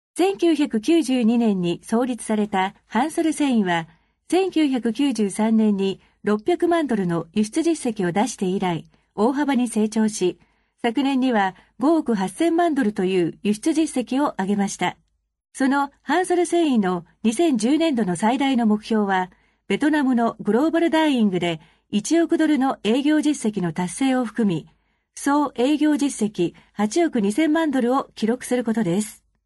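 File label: Japanese, female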